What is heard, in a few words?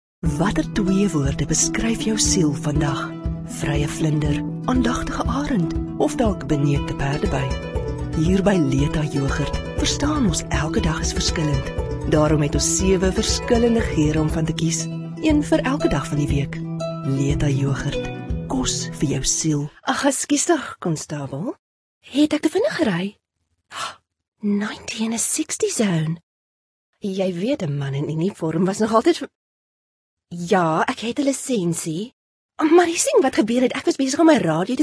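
Afrikaans Commercial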